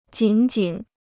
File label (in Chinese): "仅仅", Chinese